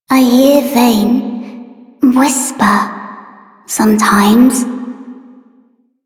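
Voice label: Child Female; Warframe; Duviri; Sword Girl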